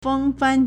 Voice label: "feng1 fan2", Chinese